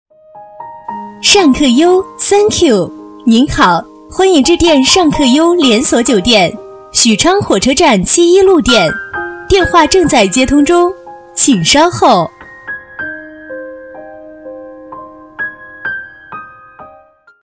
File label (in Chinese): 女 连锁酒店, 国语女声配音 彩铃广告; 尚客优连锁酒店